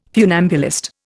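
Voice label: "funambulist", Indian English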